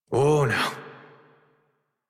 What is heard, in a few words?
Horse Stop Walk Adult; Warframe; Duviri